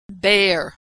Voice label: verb "bear", pronunciation